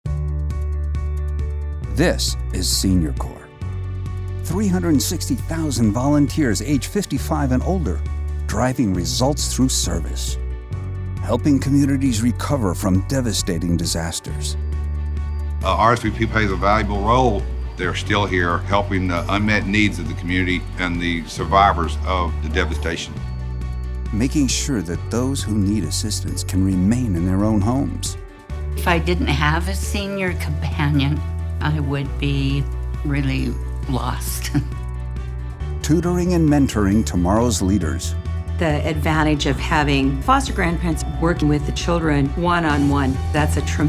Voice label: English; CNCS; Senior Corp